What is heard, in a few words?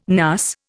"NAS", Indian